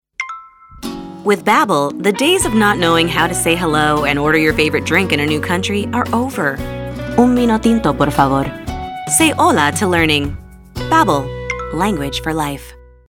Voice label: Bilingual English Spanish Conversational Friendly Warm